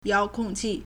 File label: "yao2kong4qi4", Chinese